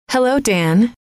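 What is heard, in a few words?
"hello", female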